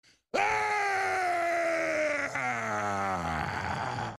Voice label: yells; Alex Jones